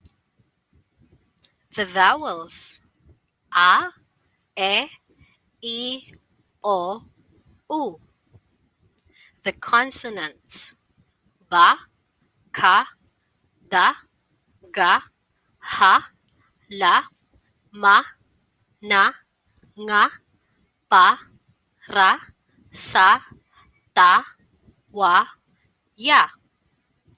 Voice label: abakada pronunciation